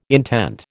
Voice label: "intent", American